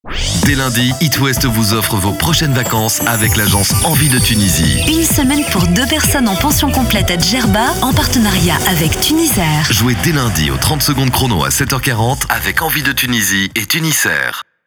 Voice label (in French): Spot